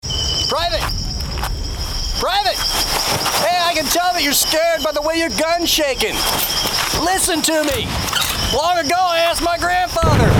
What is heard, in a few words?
character